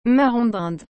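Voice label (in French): "marron d'inde", French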